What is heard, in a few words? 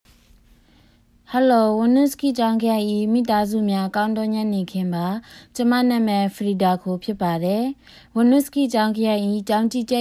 Burmese